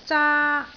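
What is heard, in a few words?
"zaa1", Cantonese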